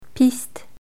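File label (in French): "piste", French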